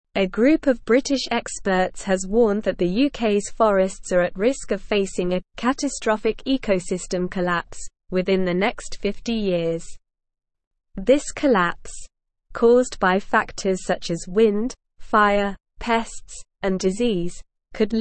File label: English Newsroom Advanced SLOW Reading; UKs Forests Facing Catastrophic Collapse Experts Warn